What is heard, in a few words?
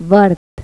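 "verde", Spanish; Pipo-Aprende musica con Pipo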